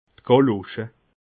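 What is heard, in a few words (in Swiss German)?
"gallosche", Alsatian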